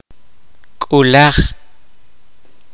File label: kaqchikel; pair couple